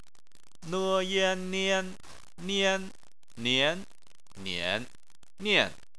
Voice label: "nian", Chinese

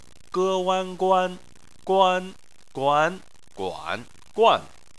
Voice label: "guan", Chinese

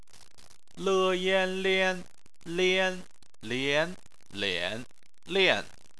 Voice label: "lian", Chinese

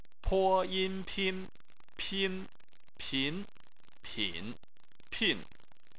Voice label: "pin", Chinese

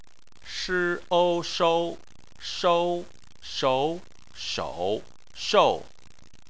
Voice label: "shou", Chinese

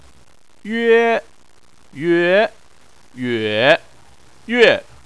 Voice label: "yue", Chinese

